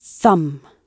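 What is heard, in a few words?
"thumb", British English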